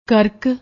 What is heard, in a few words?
"krk", Punjabi